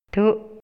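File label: Maya, male; PERCEPCIÓN SENSORIAL; INALI; MayaYuc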